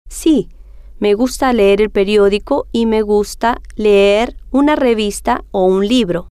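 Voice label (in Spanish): si Me Gusta Leer Periodico Y Me Gusta Leer Revista Libro, spanish, female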